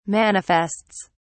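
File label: "manifests", British English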